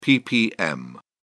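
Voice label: "ppm", English, British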